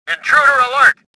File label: anger; XBOX; stofficer